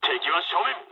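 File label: Japanese enemy detected mood low; War Thunder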